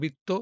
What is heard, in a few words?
Male